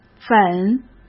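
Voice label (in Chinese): "粉", Mandarin Chinese; hsk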